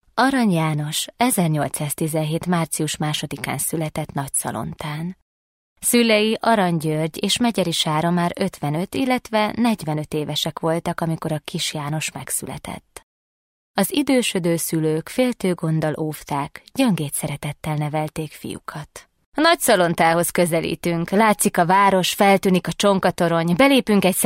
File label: female hungarian voice over narrative